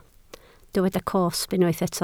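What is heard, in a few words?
"cosb" Welsh, spoken word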